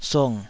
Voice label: "sung3", Taiwanese